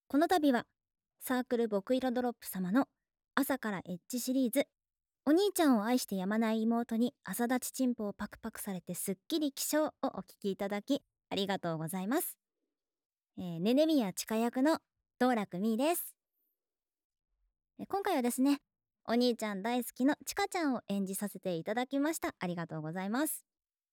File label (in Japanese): フリートーク; おまけトラック